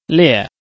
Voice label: "lie4", Chinese